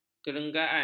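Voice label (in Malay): "kerenggangan", Malay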